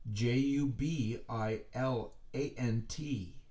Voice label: Jubilant, letters being spelled out

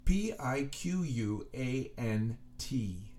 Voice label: Piquant, letters being spelled out

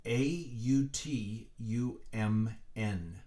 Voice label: Autumn, letters being spelled out

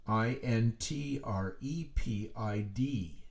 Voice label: Intrepid, letters being spelled out; Fill in the gap

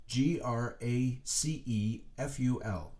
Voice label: Graceful, letters being spelled out; Fill in the gap

